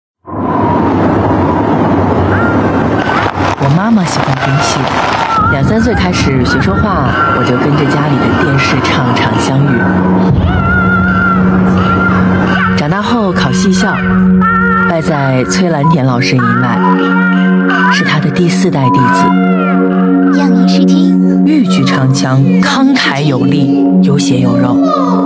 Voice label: 女 宣传片 素人感; 电魂网络; 梦三国2; ×豫剧跨界联动视频-让艺术扎根乡壤

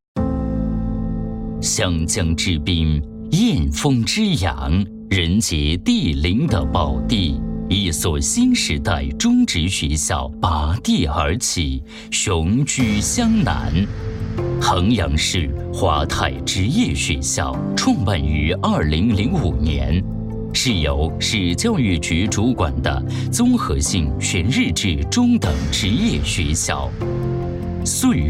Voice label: 男 宣传片; 衡阳市华泰职业学校

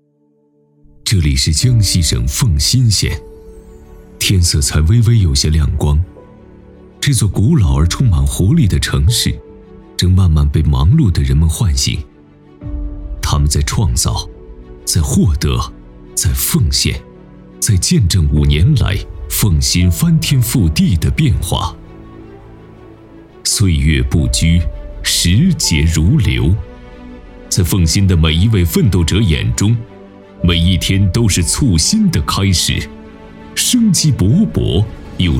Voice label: 男 党政专题 深情恢弘; 江西省奉新县十三五发展巡礼

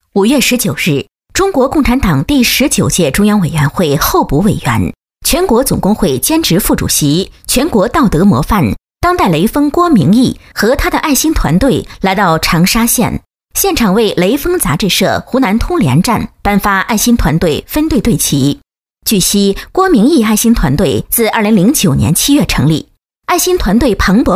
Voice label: 女-新闻; 学雷锋; 跟着郭明义学雷锋

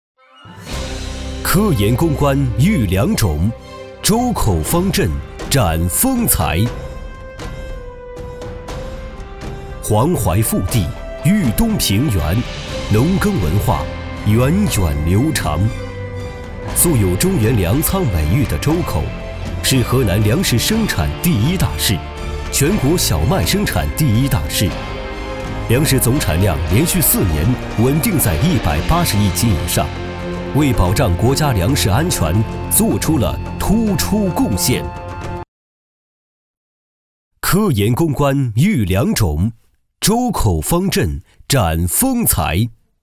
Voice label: 男 宣传片 铿锵有力

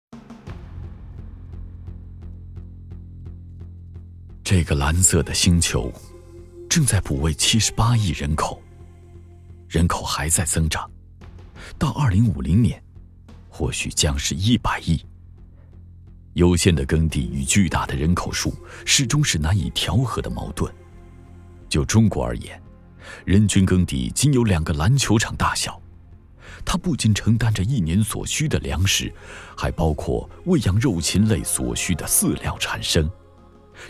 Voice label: 男 神秘危机感

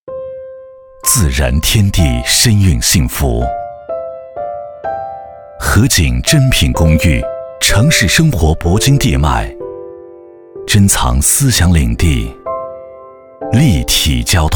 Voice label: 男 地产 话筒; 逸林湾

